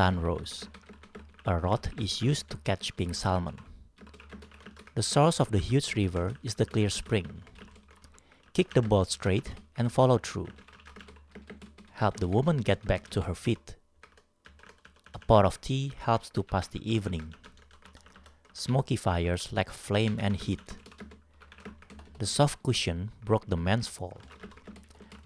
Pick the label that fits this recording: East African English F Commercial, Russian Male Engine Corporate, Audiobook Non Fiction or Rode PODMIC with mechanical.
Rode PODMIC with mechanical